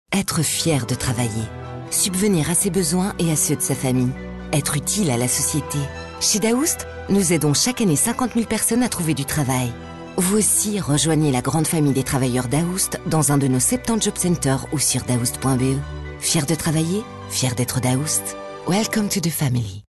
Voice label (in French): radio, femme; FR; Daoust